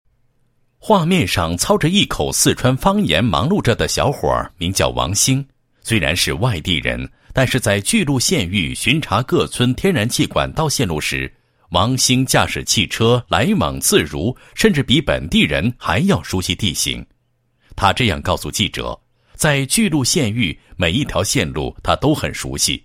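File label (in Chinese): 男 人物专题, 天然气管道线路巡查员事迹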